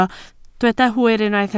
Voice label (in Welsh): "hwyr" Welsh